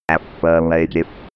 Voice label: robot voice affirmative